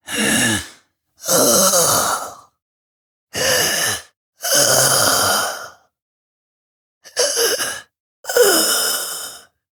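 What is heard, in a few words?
Barks Bird, English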